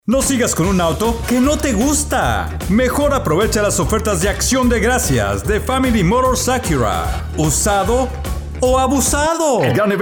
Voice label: Male